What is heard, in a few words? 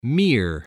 "mere", voice, spelling